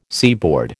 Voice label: "seaboard", American

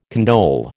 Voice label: "condole", American

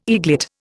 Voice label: "eaglet", Indian

